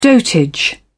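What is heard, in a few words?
"dotage", British English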